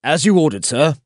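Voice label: Voices Units Allied Rifleman move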